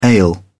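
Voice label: "ale", British English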